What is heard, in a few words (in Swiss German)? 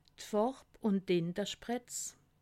"farb", alsace